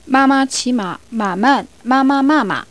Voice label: Chinese